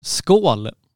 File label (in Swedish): "Skål", Swedish; cheers; hello in swedish